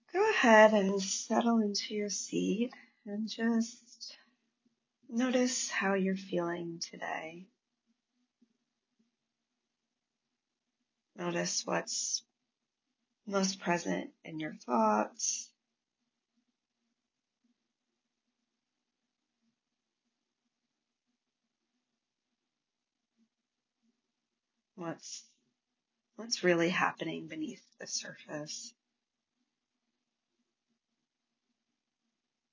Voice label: Mini Rain Meditation